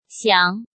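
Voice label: "Xiáng", Chinese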